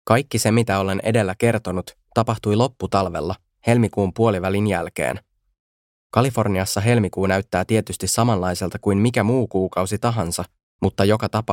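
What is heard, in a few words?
Finnish Audiobook Male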